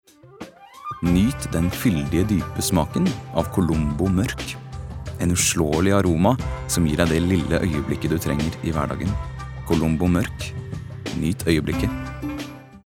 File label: no soft sell